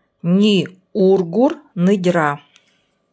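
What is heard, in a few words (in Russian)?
НИВХСКИЙ У меня хорошо идут дела; Ни ургур ныдьра.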